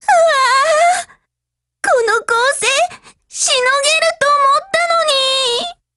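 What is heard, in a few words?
BREAK Japanese, Voice; GF Alarm; FN49 Mod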